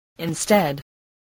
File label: "instead", voice spelling